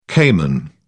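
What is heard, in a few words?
"cayman", British English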